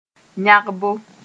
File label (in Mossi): "yãgbo", moore; being in a hurry